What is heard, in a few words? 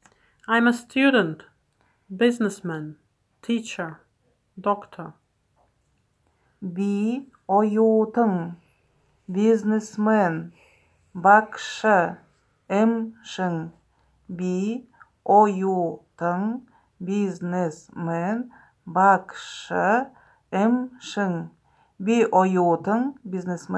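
I am a student businessman teacher doctor, Buryat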